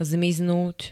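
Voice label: "zmiznúť", Slovak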